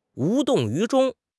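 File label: "无动于衷", Mandarin Chinese; HSK6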